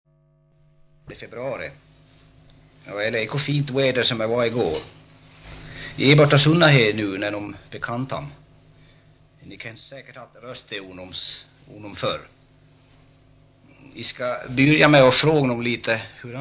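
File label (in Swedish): Ore Dalarna, Svenska sagor, dialekter; Folkminnen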